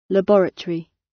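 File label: "laboratory", British; The arts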